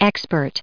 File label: "expertly", us